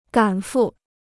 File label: "赶赴", Chinese